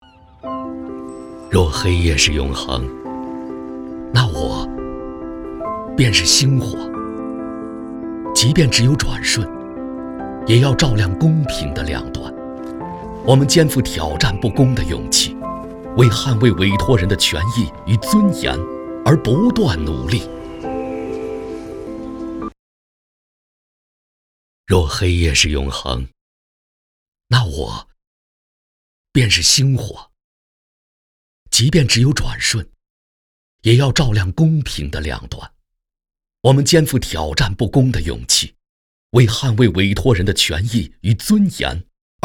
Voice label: 男, 走心广告; 若黑夜是永恒; 光

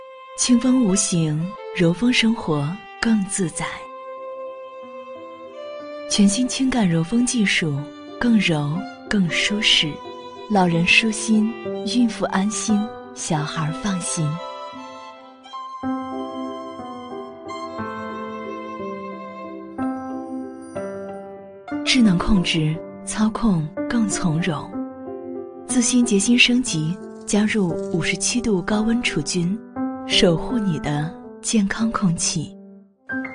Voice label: 女 广告 空调; 奥克斯; 奥之梦空调

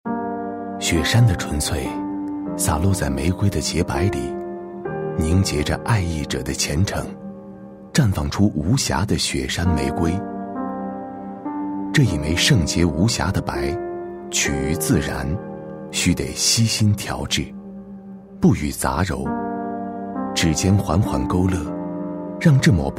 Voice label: 男 走心; 雪山玫瑰

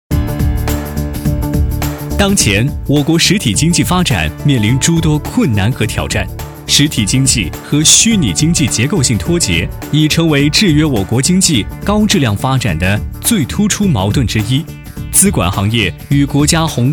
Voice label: 男,专题 年轻朝气; 吉富创投

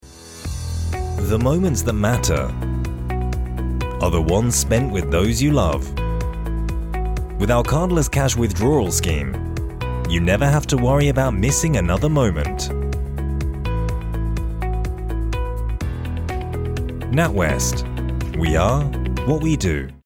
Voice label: 低沉浑厚

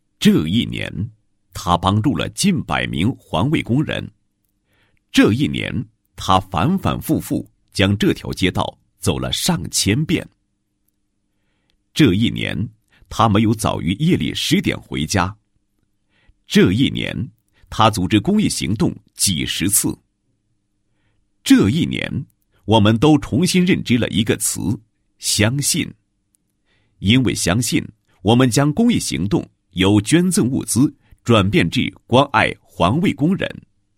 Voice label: 男; 工作总结; 狮子会环卫工人项目工作总结

